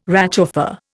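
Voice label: "réchauffé", Indian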